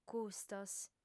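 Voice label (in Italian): "custos", lingua sarda, female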